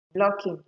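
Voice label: "blocking", Nigerian Pidgin, female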